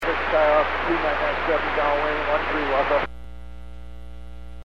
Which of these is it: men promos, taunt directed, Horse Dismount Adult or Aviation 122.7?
Aviation 122.7